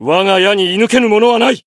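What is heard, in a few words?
Voice Japanese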